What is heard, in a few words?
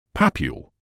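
"papule", British English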